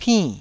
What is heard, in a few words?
"pinn4", Taiwanese